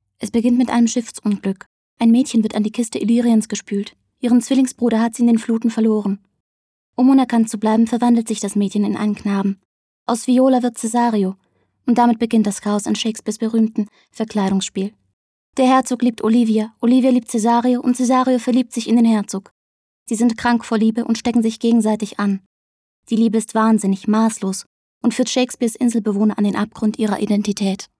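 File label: german female narration; voice demos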